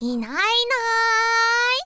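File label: inai inai, Japanese, girl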